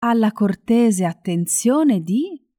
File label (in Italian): "Alla cortese attenzione di", Italian; hello in italian